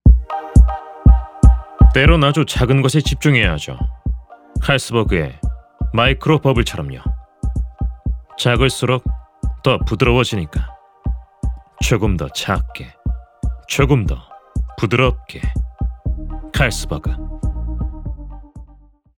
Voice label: 30대 저음 시크한 더빙 톤 카리스마 맥주 광고

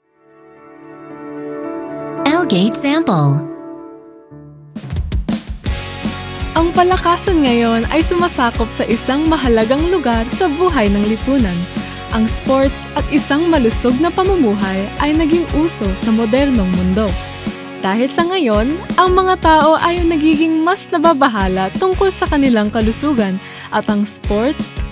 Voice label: 필리핀여 밝은 톤

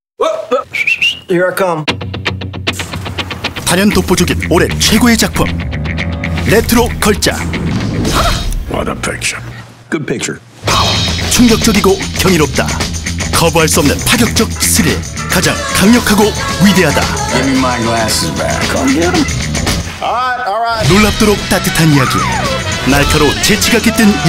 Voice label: 밝은 스팟; 원스 어폰어타임 인 할리우드

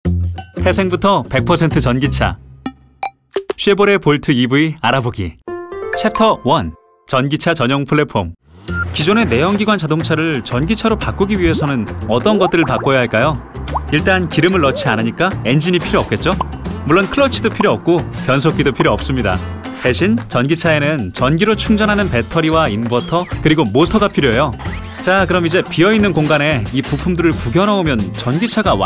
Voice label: 일반인 20대; 쉐보레 볼트 EV

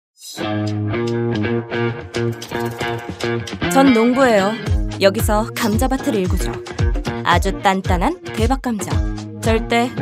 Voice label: 세련된 시크한; 아메리칸솔루션

